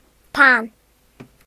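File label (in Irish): "pen", Irish; gcompris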